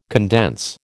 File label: "condense", American